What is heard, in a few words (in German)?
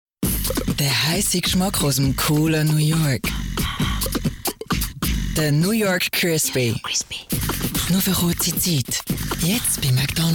SCHWEIZERDEUTSCH FEMALE Werbung Off; Mc Donalds; Mc Crispy; LOFT VOICES